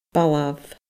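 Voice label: "balbh", Scottish Gaelic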